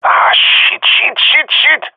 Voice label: scared, jungle male epic; player vo; Counter Strike Model Pack; CS:GO